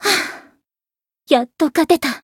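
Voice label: Voice win barely, Japanese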